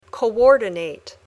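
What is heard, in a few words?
"coordinate", English word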